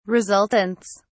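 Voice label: "resultants", English, British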